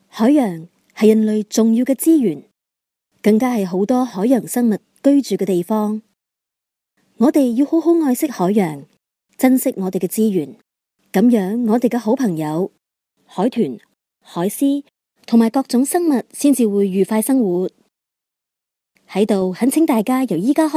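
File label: Cantonese VO; Sea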